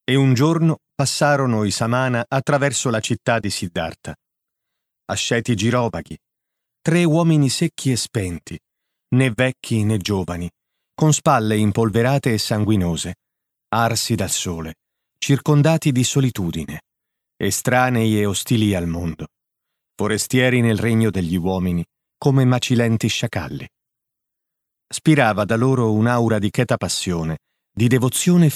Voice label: audiolibri

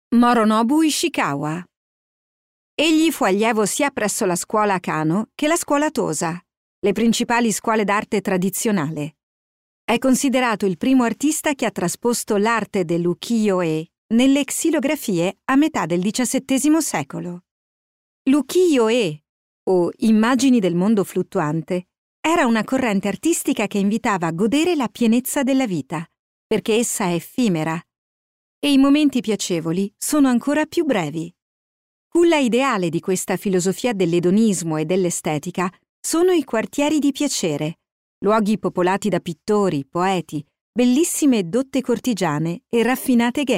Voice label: audioguide

